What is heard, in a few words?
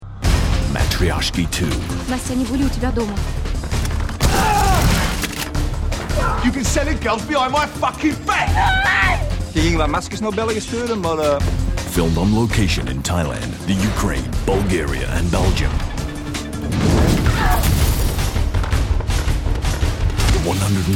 US trailer; Matrioshki 2